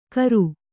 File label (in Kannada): "ಕರ", Kannada